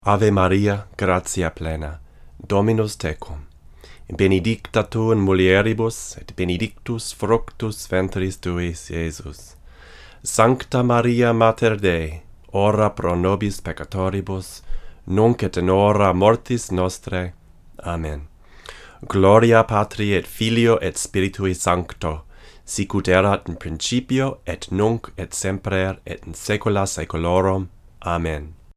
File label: Fig 8 VO, Neumann KM88; Fig. 8 back side